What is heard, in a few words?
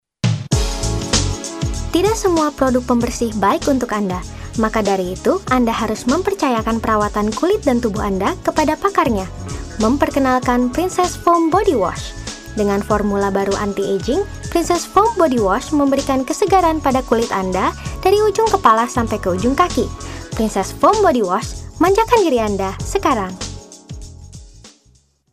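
Bahasa Indonesia female voice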